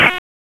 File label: Police North District Tac 1, p25; Raleigh; wake